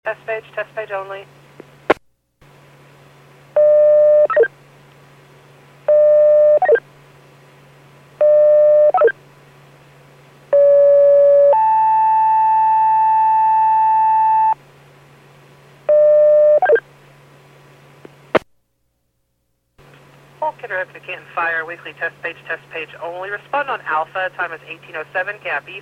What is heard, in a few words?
PAGING